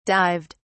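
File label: "dived", British English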